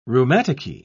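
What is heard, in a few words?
"rheumaticky", British English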